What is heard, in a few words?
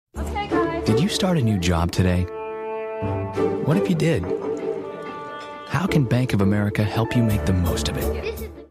CARING